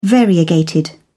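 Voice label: "variegated", British English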